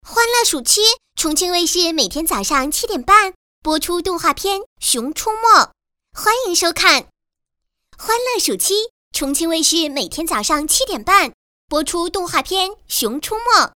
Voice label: 女; 熊出没

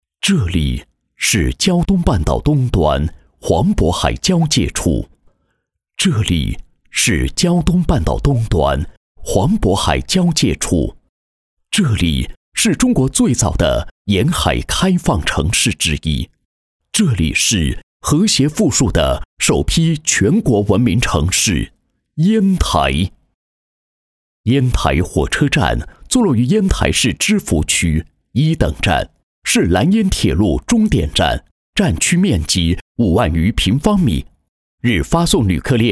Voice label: 男 公安 配音; 烟台站派出所“三位一体”强化阵地管控

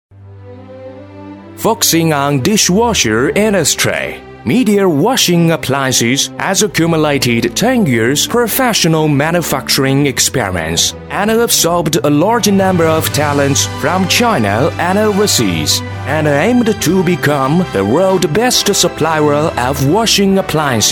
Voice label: 英男 英语专题男声

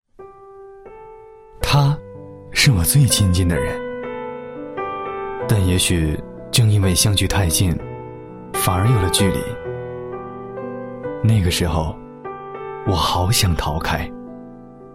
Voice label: 男 浑厚男声 广告; 国语男声配音; 腾讯; 男95浑厚男声

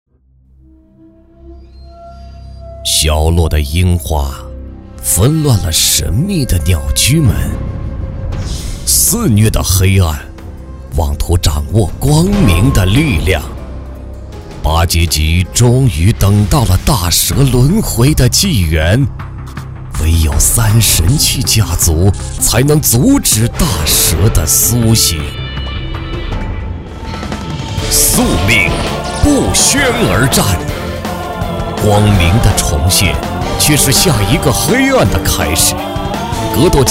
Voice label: 男 游戏宣传 磁性 阴冷

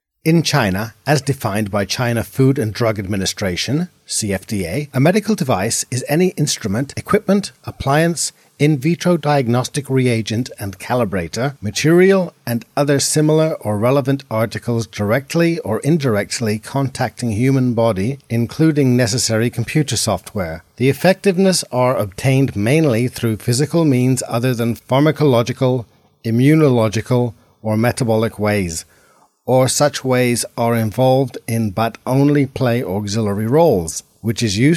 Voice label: 外籍 男

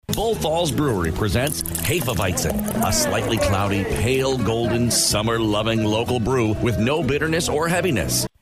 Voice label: 外籍英男 酒广告 轻快

